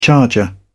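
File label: "charger", British English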